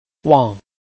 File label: "wang4", Chinese